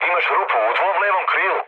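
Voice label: Serbian left wing mood low, speech; War Thunder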